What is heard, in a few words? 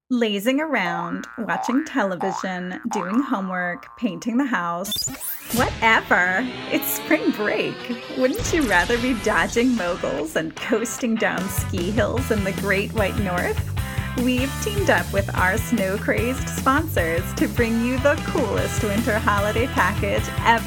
Voice Over